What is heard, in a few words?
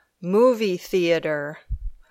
"movie theater", English